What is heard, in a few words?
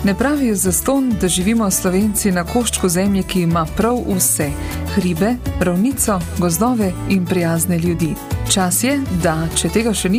Slovenian